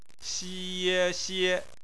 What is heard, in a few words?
"xie", Chinese; phonetics